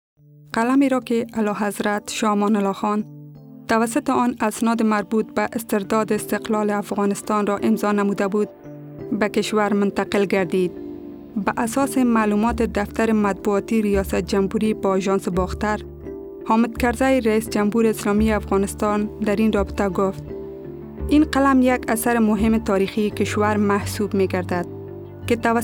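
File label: Dari Persian General; GoLocalise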